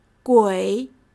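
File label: "gui", Chinese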